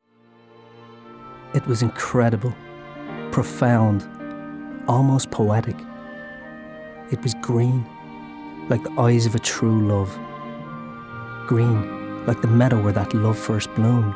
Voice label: Soft Southern Irish Comedy Blokey; Dulux

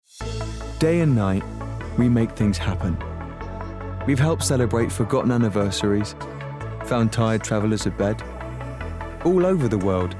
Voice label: EN; AmericanExpress

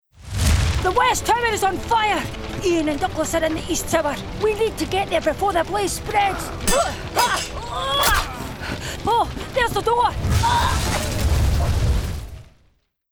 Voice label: Gaming Highland Warrior

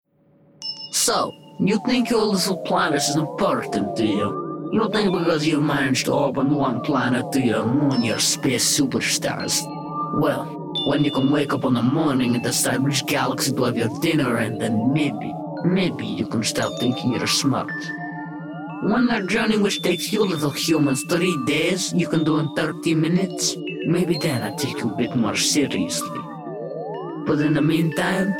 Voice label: Alien

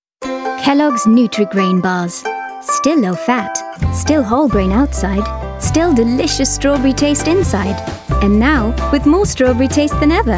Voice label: Commercial

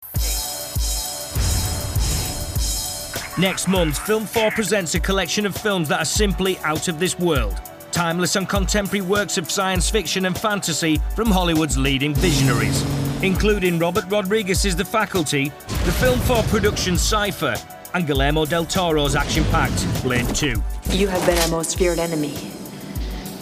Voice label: PROMO Short Cool Informative Upbeat; Film Four

